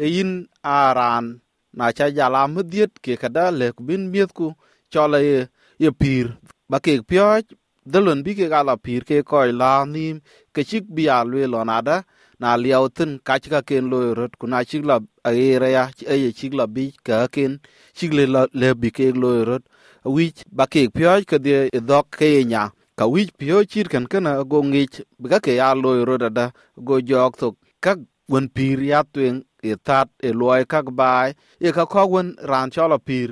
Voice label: dinka; SBS Dinka

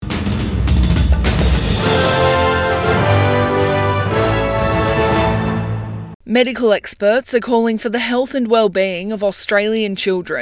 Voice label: english; SBS News; Calls for children's health to take priority in post-COVID plans

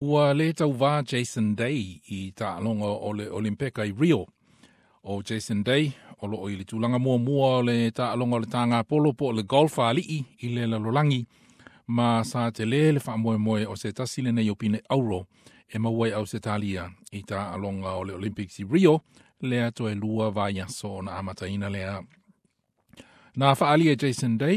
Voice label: samoan; Le auai Jason Day i taaloga i Rio; SBS Samoan

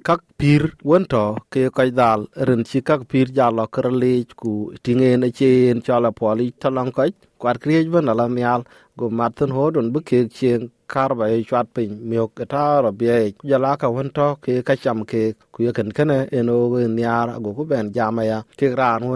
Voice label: dinka; SBS Dinka

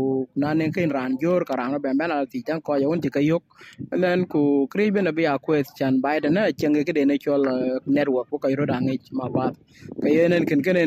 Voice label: dinka; Bi baai yiëknhiäl aguiɛ̈ɛ̈r keye keek thuɛ̈c; SBS Dinka

